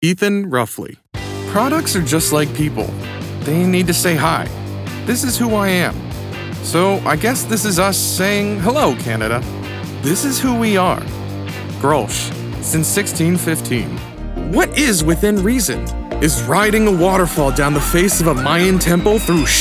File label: Commercial; Toronto